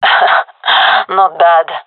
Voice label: compliment, gendarmerie fem; vo; agents

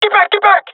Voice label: request fallback, jungle male; vo; agents; Source Model Packs; Counter Strike Model Pack; CS2

